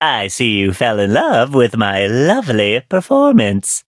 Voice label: level up, en; cookie run

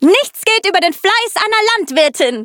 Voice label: victory, de; cookie run

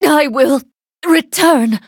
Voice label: hit, en; cookie run

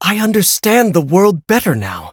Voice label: levelup, en

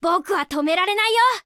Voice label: upgrade, ja

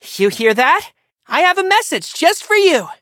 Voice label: loading, en; Cookie Run

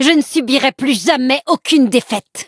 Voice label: upgrade, fr; Cookie Run

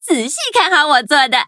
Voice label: touch, zh hant; cookie run

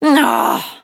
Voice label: defeat, de; Cookie Run

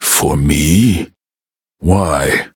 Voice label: levelup en; cookie run

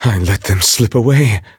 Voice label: defeat, en; Cookie Run

